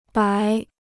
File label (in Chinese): "白", Chinese